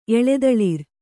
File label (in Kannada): "ಎಳೆದಳಿರ್", Kannada